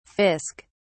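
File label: "fisk", British English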